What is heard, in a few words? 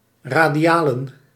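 "radialen", Dutch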